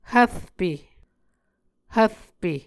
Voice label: "hvrpe", Muscogee

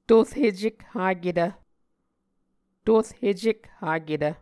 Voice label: "tor hecek haketv", Muscogee

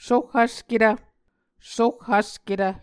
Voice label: "soh vsketv", Muscogee

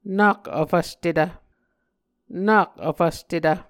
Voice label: "nak vfvstetv", Muscogee

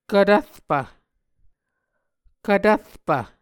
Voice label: "kvtvrpv", Muscogee

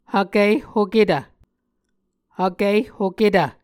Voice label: "hvkihhoketv", Muscogee; Mvskoke Words with Repeated Consonants; Onvkv Ohhvyayices; A Dictionary of Creek/Muskogee; SIL International

